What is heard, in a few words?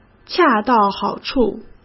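"恰到好处", Mandarin Chinese; hsk; chinese-flashcards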